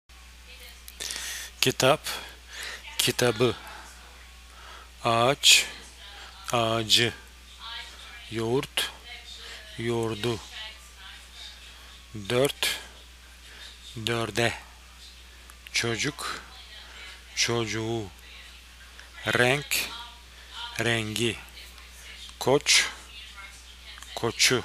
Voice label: consonant mutation exercise, Turkish